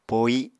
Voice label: "pohi", Apatani